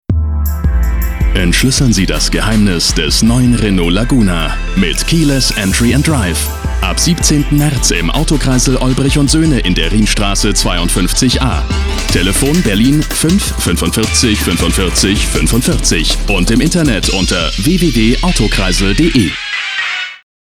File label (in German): de werbung, deutsch maenner; sprecher